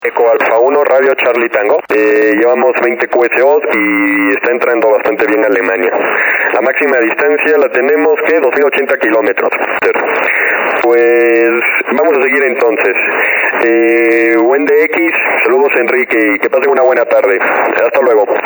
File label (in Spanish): durante la ES comentando la jugada; EA1RCT, Radio Club Teleco